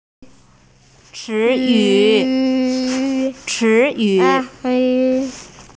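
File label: "ci2yu3", Mandarin Chinese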